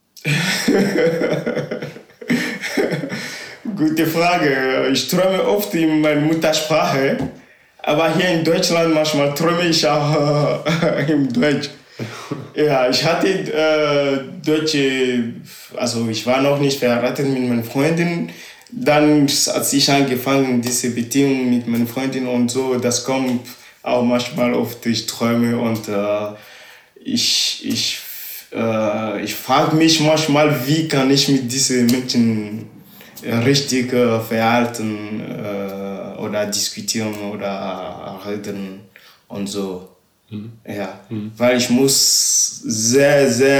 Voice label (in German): ewe togo